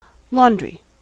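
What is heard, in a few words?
"laundry", US English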